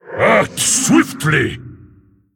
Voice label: Male; Mogu